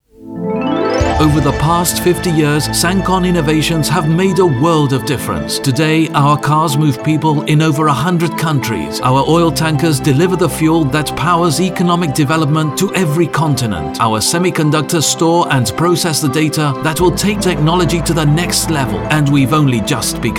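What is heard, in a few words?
Spanish European Accented English; GoLocalise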